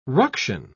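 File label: "ruction", English, British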